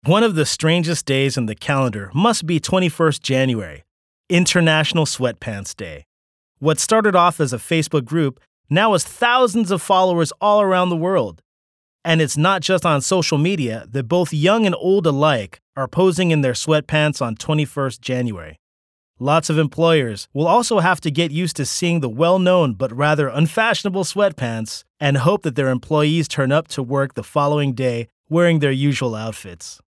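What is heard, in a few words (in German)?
American English, Dynamisch; International Sweatpants Day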